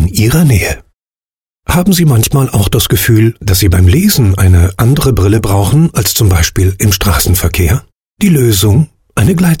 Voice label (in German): Brillen Werbung reif ruhig